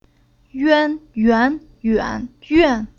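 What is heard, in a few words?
"Yuan", Chinese syllable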